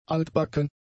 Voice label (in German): "altbacken", German; adjectives